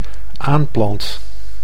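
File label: "aanplant", Dutch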